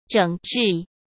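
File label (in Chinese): "整治" Mandarin Chinese; hsk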